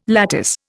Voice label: "lattice", Indian